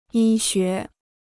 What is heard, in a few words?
"医学", Chinese